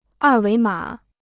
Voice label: "二维码", Chinese

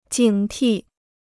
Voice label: "警惕", Chinese

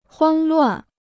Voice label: "慌乱", Chinese

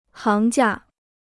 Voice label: "行家", Chinese

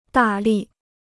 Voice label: "大力", Chinese

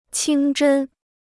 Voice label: "清真", Chinese

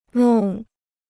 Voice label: "弄", Chinese

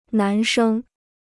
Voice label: "男生", Chinese

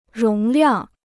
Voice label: "容量", Chinese

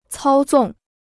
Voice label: "操纵", Chinese

